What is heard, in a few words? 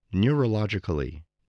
"neurologically", voice